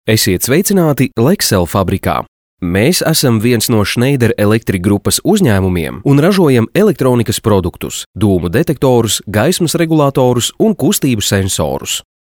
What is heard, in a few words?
Latvian male